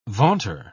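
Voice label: "vaunter", British English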